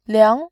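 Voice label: "liang2", Mandarin Chinese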